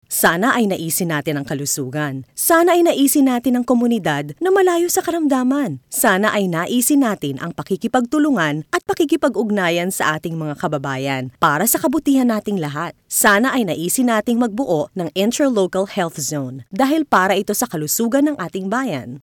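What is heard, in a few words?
Tagalog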